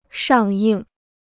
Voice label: "上映", Chinese

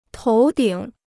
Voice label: "头顶", Chinese

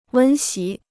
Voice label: "温习", Chinese

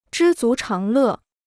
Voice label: "知足常乐", Chinese

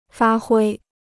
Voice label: "发挥", Chinese

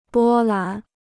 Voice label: "波澜", Chinese

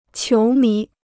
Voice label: "球迷", Chinese

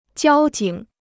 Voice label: "交警", Chinese

